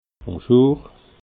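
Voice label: "buschur", Alsatian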